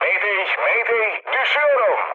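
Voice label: Turkish aircraft destroyed, mood low, speech; War Thunder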